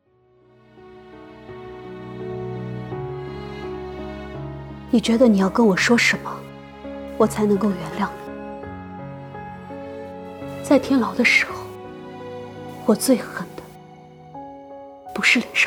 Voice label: 影视剧配音展示品

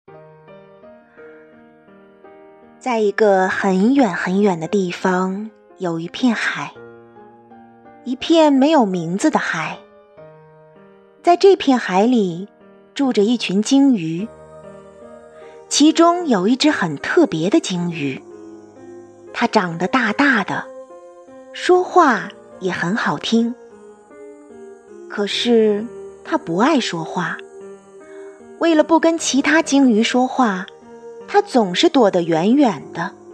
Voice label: 故事配音

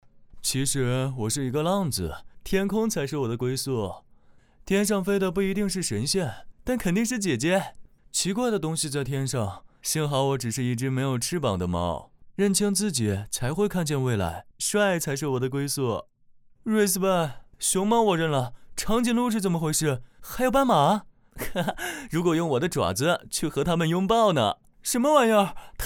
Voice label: 猫拟人慵懒少年感; 融创

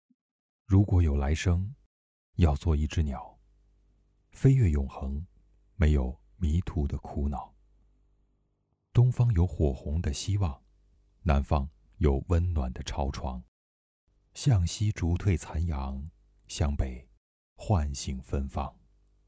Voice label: 情感类

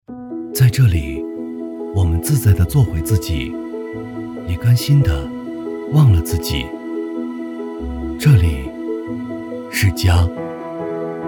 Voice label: 洗烘一体机走心广告; 海尔

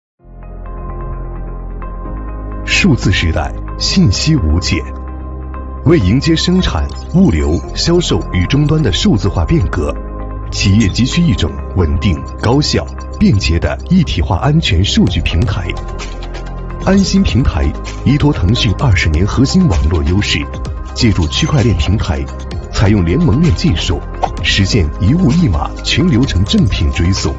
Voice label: 科技感年轻正式; 科技感·年轻正式·腾讯安心平台

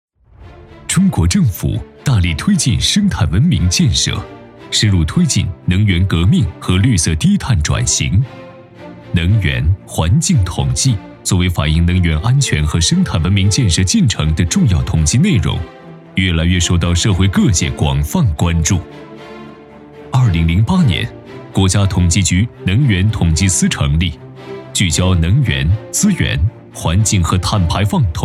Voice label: 专题汇报类; 国家统计局能源统计司总结片

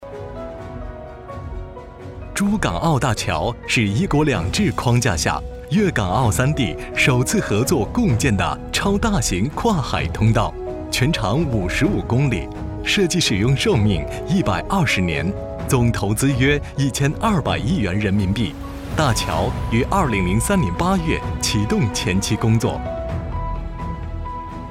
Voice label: 工程解说

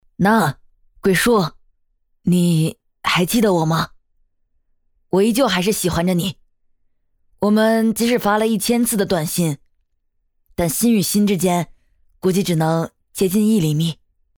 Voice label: 正太

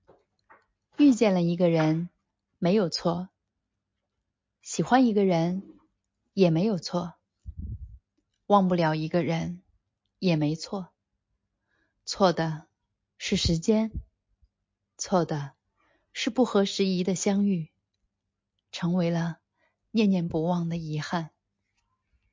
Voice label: 文案配音

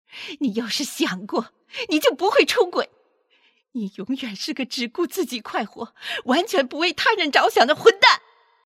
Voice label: 气愤

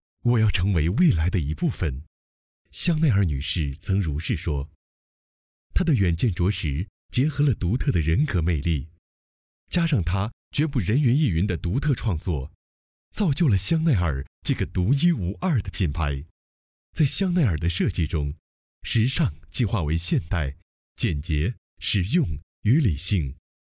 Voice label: 宣传 磁性帅气

